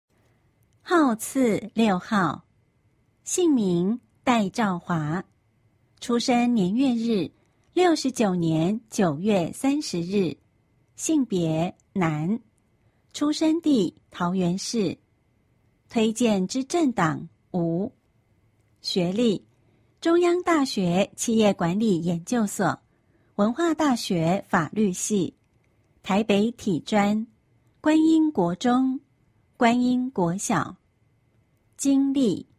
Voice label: 國語; 有聲公報; 市議員

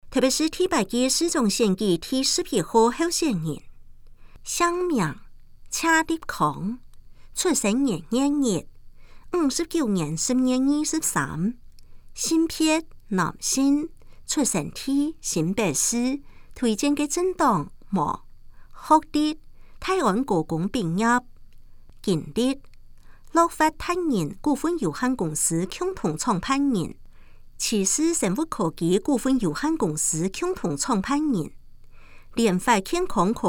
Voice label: 客語; 臺北市; 有聲公報; 市長; 臺北市第8屆市長選舉候選人介紹

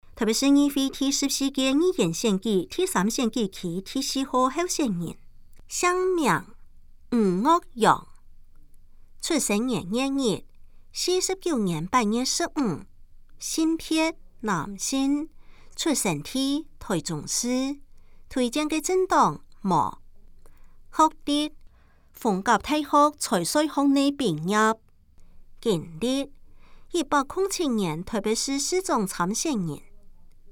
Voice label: 客語; 臺北市; 有聲公報; 市議員; 臺北市議會第14屆議員第3選舉區 (松山、信義區)候選人介紹

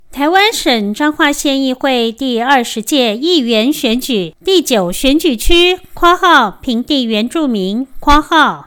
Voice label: 前言 國語; 彰化縣; 縣議員; 第九選舉區; 有聲公報

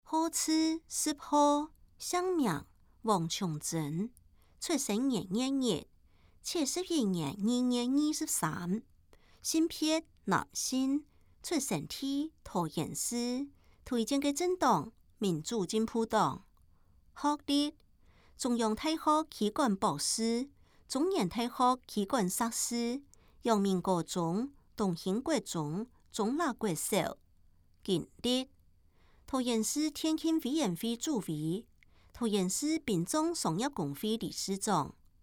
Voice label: 客語; 有聲公報; 市議員

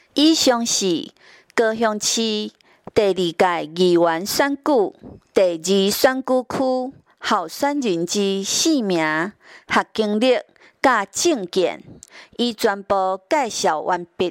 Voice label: 台語; 第二選區

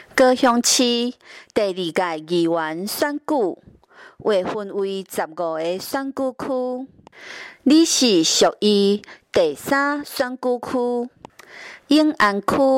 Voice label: 台語, 前言, 有聲選舉公報; 第三選區

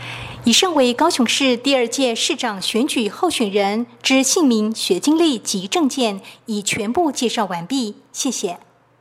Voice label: 國語, 有聲選舉公報; 市長

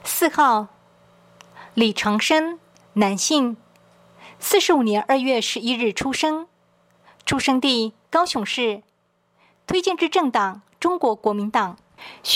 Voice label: 國語, 有聲選舉公報; 高雄市; 高雄市市長議員有聲選舉公報; 第二選區

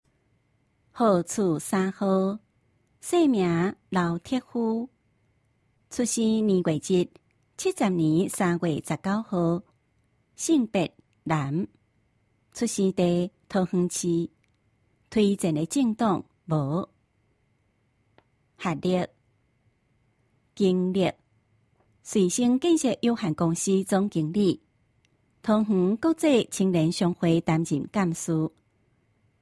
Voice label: 台語; 有聲公報; 市議員

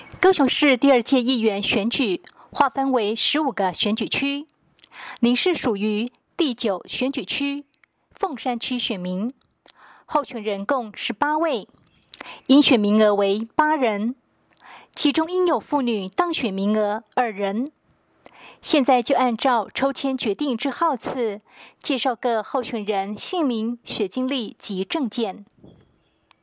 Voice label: 國語; 高雄市; 高雄市市長議員有聲選舉公報; 第九選區

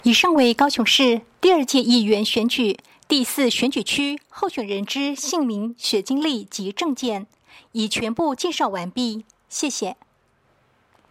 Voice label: 國語, 有聲選舉公報; 第四選區

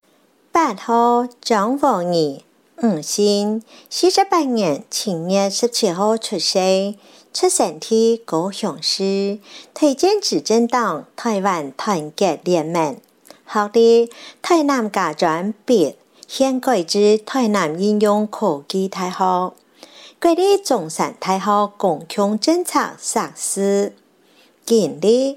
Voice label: 客語; 高雄市; 高雄市市長議員有聲選舉公報; 第十一選區

